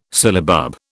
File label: "syllabub", British